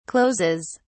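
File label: "closes", British English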